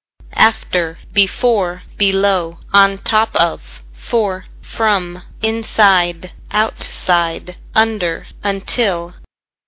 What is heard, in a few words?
english; words